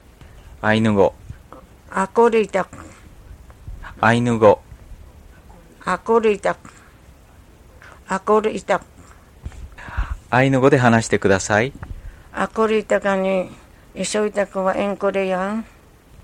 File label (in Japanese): あいぬご, Ainu; mukawa